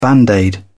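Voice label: "band aid", British English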